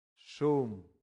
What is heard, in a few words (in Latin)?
"sum", latin; santillana